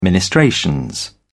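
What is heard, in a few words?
"ministrations", British English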